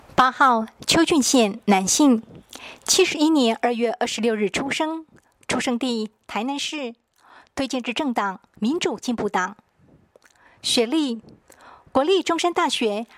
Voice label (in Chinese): 國語, 有聲選舉公報; 第五選區